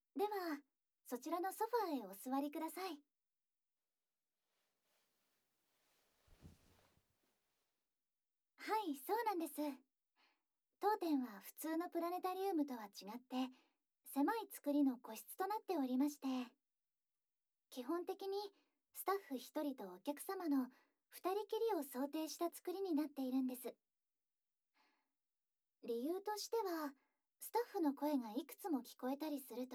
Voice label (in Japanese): 冬の夜空の星々をご案内（BGMあり）左耳バージョン